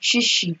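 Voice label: "shishi", Nigerian Pidgin, female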